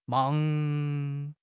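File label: "mang2", Mandarin Chinese; HSK2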